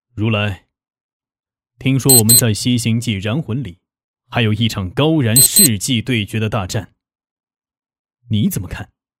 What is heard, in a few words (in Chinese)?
男 角色模仿; 年轻有力; 质感磁性; 杀心